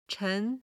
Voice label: "chen2", Chinese

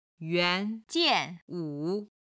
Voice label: "yuan2jian4wu3", Chinese

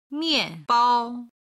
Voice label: "mian4bao1", chinese

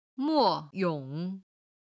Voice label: "mo4 yong3", Chinese

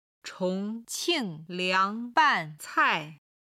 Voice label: "chong2qing4liang2ban4cai4", chinese

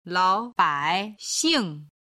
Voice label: "laobaixing", Chinese

